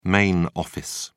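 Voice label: "main office", British English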